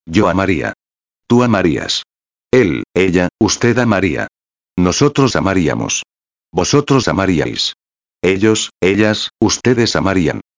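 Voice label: verbo amar, condicional simple, Spanish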